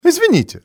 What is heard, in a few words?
"excuse me", male; XBOX; civ